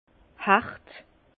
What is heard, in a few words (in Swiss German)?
"hart", Bas Rhin